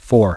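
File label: "four", English; iOS 4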